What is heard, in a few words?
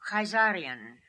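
"Khaizarian", pronunciations; Fourth Way; Beelzebub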